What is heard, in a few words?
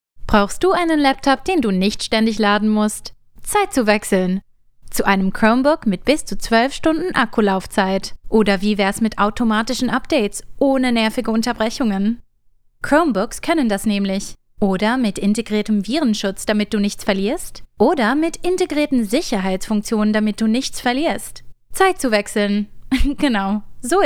Commercial GERMAN Character Upbeat Modern